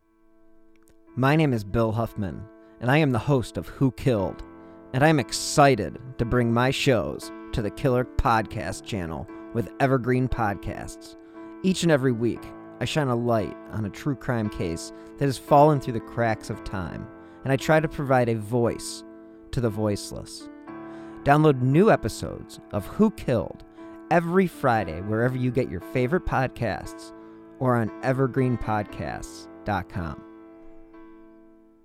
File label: cross promo